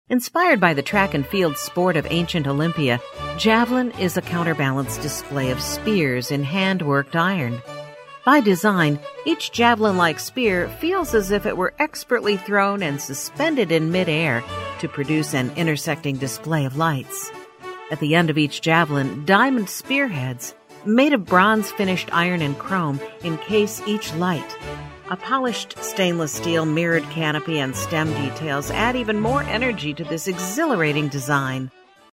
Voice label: explainer jav lighting